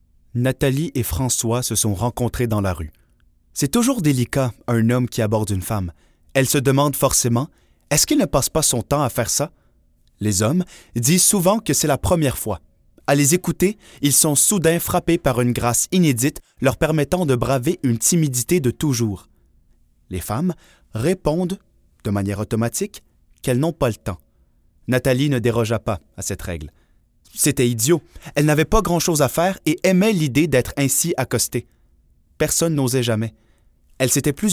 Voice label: NARRATION